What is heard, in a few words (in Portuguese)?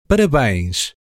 "Parabéns", Portuguese